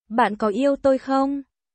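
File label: Vietnamese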